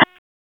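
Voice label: Police Special Operations Tactical; Raleigh; Spec Ops Tac 7; wake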